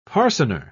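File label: "parcener", British English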